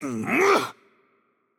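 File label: voice over, Brazilian Portuguese; Change Player; bloom minigame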